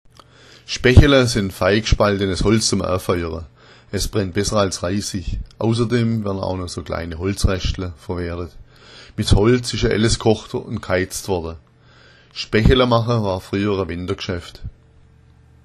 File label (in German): spaechela, schwaebisch